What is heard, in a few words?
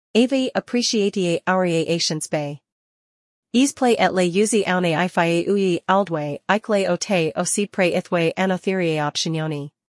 pig latin